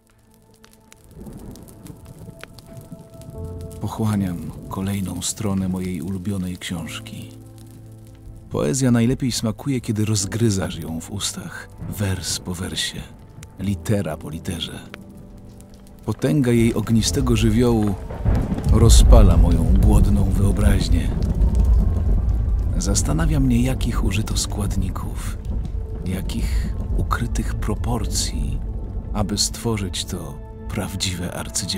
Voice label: Narration

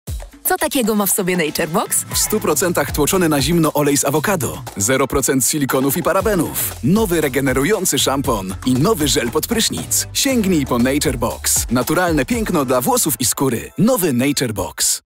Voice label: Commercial

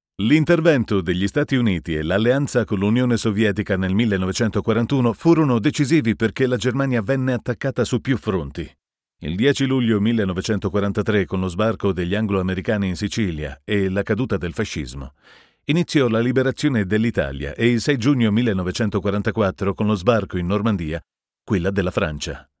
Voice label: Documentary

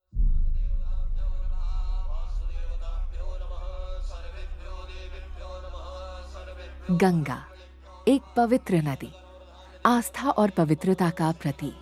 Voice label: Documentary

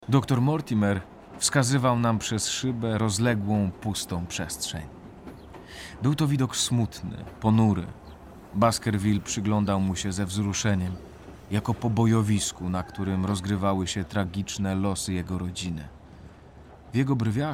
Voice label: Audiobook

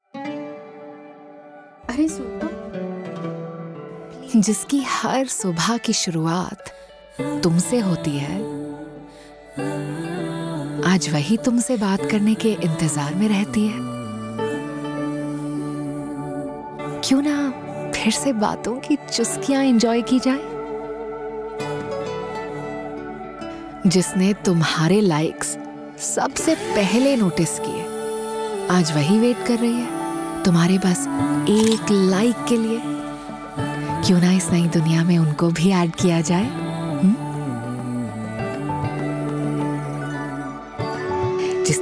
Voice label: Commercial

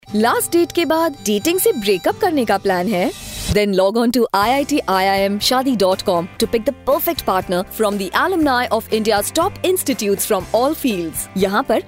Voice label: Commercial

